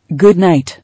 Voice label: "Good night", English

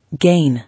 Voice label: "Gain", English

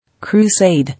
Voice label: "Crusade", English

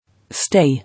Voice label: "Stay", English

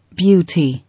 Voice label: "Beauty", English

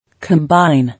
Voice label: "Combine", English

